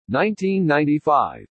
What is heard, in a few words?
"1995", English